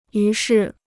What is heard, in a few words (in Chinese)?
"于是", Chinese